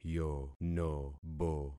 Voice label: "yonobo", concat syllables; Affixing experiment